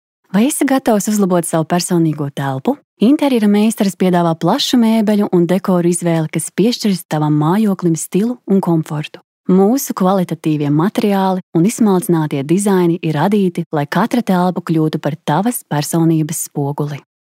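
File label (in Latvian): Reklama Latvian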